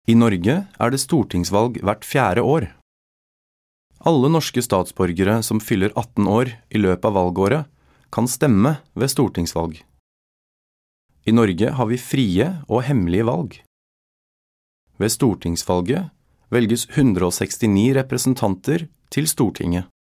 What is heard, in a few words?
Norwegian fagbok; norwegian, male